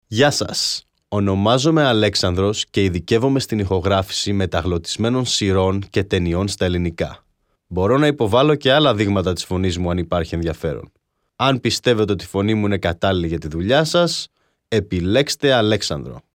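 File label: Greek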